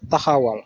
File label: "stop", wolof